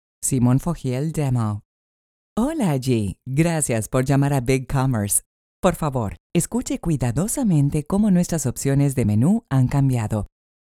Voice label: IVR SPAN